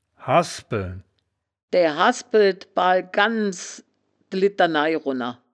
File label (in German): "hasbeln", wittlicher woerterbuch; das wittlicher woerterbuch; woerterbuch vertonung